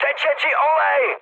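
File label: damaged oil, Czech, mood high; speech; War Thunder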